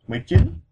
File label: nineteen vietnamese